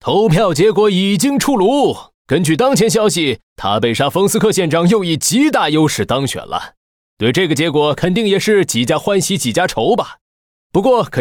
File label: Mandarin; 瑞奇（双点校园）